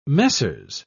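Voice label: "messieurs", English, British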